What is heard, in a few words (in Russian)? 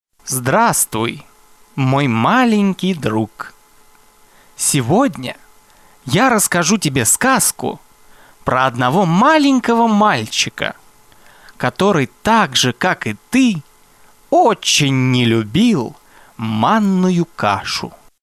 detskij diktor sovetskoe radio nyanya